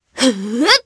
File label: Vox Casting, jp; Selene; kingsraid audio